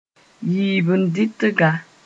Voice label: "yiib-n-dɩtga", mos-en; pair